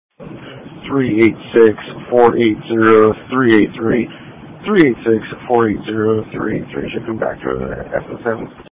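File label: Police Patrol (Dispatch)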